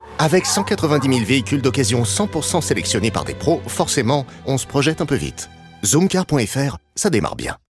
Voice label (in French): Packshot, pub humoristique, voix off français; Voix off homme médium grave; Spot TV Zoomcar 2025 drôle et percutant